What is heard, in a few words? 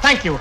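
"thank you", male voice; expletives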